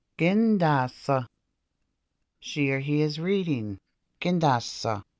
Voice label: Reading; Gindaasa; CuCo Film Series